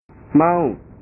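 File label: "mau", Indonesian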